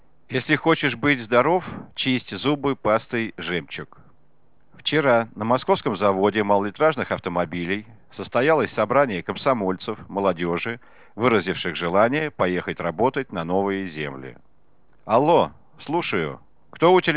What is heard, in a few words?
G165 far talk